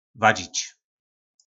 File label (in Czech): "Vadźič(se)", nářečí Po našimu; Hádat(se)